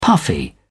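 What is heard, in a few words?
"puffy", British English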